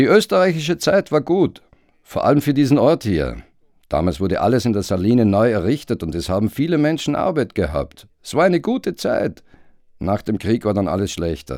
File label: Voiceover